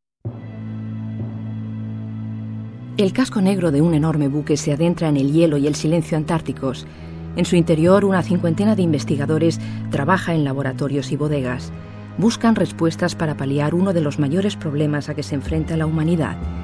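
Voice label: es documentary antartida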